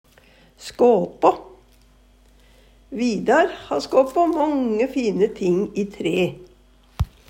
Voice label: "skapa", Numedalsmål; Verb; Vidar ha skåpå månge fine ting i tre.

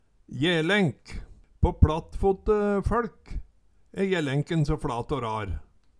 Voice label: jelenk, Numedalsmål

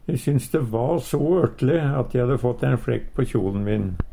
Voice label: "ørtLe", Numedalsmål; E syns de va so ørtLe at e hadde fått ein flekk på kjol`n min; Adverb

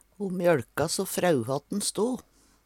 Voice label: frau, Numedalsmål; Hushald, mat, drikke

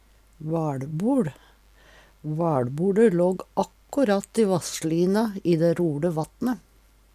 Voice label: vaLboL, Numedalsmål

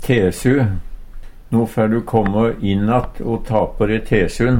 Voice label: tesu, Numedalsmål; No fær du kåmmå inn å ta på de tesun.

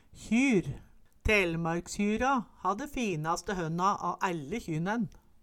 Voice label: kjyr, Numedalsmål

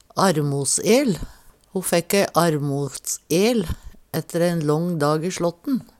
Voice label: "armosel", Numedalsmål

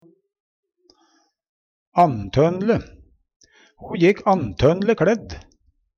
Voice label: "anntonle", Numedalsmål

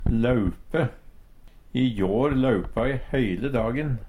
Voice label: laupe, Numedalsmål; I jår laupa e heile dagen.

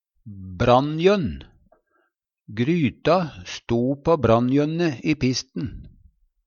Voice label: "brannjønn", Numedalsmål; Substantiv inkjekjønn; Hushald, mat, drikke

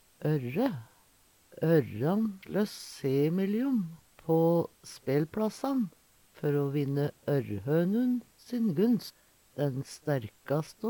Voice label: "ørre", Numedalsmål

